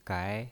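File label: "gai" Mandarin Chinese, second tone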